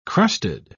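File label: "crusted", British English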